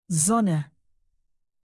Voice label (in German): sonne, alphabet de native